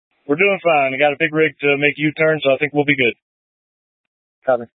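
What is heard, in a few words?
Incident Tac 3, Scanner audio recording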